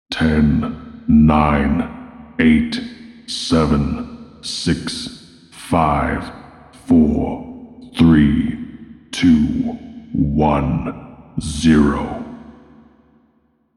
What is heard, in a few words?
Countdown Darker Extra Ham Improved